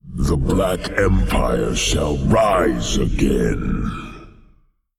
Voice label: Male Old God Bark; YShaarj

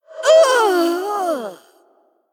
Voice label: Female Ghost Death

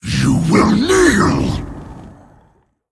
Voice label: Male Elemental Attack

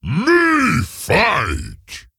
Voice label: Ogre Emote

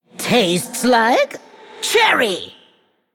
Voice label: Male Forsaken Attack

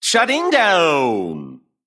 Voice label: Male Mech Death

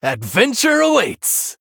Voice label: Male Human New Game

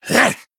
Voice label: Male Venthyr Attack

